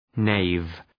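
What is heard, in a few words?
"knave", English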